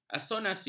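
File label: "asonansi", Malay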